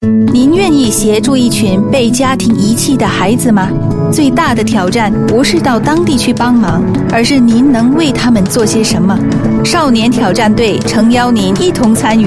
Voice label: Mandarin Female Youth Challenge; China